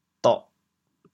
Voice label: "doh4", chaoyang

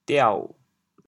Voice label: "diao6", chaoyang; 召; 潮州发音; 潮阳; 文

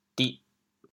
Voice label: "dih4", chaoyang

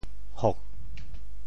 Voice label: "hok4", Teochew; 蝮

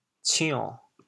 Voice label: "cion6", chaoyang; 潮阳; 白

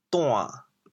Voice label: "duan3", chaoyang

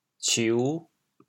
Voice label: "ciu5", chaoyang

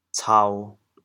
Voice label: "cao1", Teochew, chaoyang

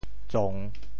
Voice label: "tsong3", Teochew; 潮州; 众

